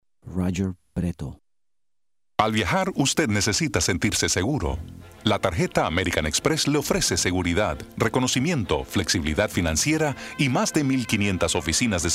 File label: Spanish